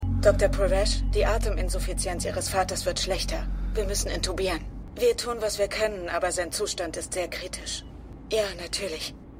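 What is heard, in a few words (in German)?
Ärztin; AtlantaMedical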